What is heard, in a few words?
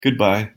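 pronunciation English "goodbye"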